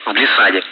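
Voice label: Speech, Russian, air traffic control; DCS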